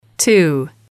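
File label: "two", female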